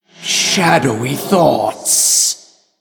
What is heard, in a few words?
Male Human Thinking; Anduin Deathknight